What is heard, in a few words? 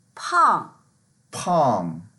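"pang4", Mandarin Chinese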